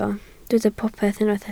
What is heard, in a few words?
"popeth", Welsh